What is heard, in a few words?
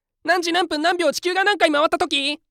what time noisy